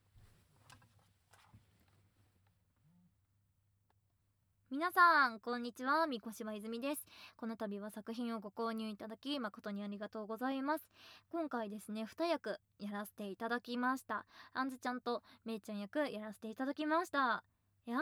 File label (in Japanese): フリートーク, ASMR